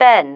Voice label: "been", female

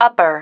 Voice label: "upper", female

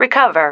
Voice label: "recover", female

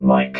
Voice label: "mike", robot vox

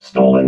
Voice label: "stolen", robot

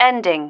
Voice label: "ending", female

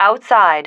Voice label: "outside", female

